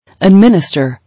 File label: "Administer", English